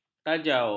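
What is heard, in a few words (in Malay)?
"kajau", Malay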